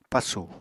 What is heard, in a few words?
"pasù", Apatani